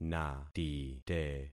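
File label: "natite", concatenated syllables; Affixing experiment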